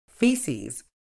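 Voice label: "faeces", British English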